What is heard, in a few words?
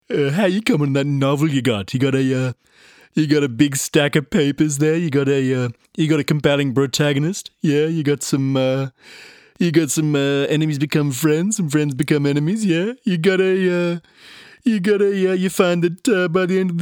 Impersonations, male voice; Stewie Griffin Family Guy